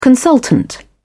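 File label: "consultant", British English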